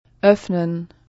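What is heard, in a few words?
"öffnen", German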